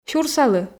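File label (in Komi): "сюрса лы", Komi, female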